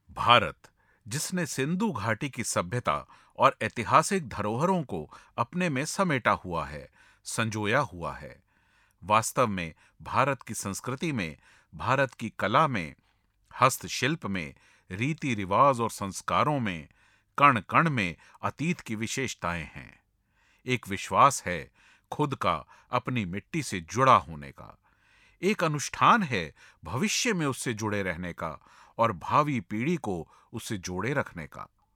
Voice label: father hindi, voice overs